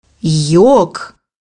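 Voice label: "yogi", Russian; bukvar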